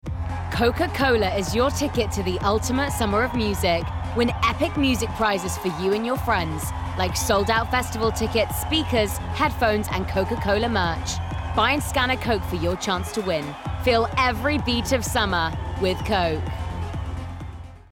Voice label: Commercial Mix; Coke